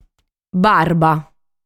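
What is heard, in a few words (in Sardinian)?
"Barba", Sardinian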